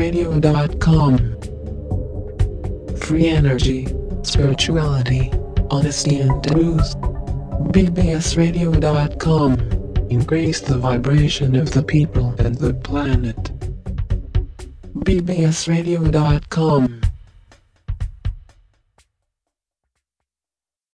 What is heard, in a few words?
computer voice, radio promo